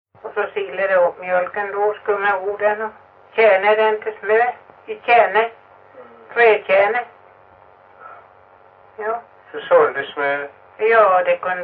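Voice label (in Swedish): Stenåsa Öland, svenska sagor dialekter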